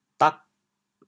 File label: "dag8", chaoyang